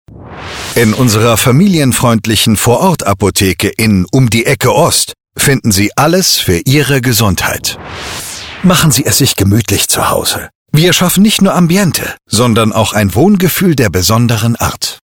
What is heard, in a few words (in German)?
vertrauensvoll heimelig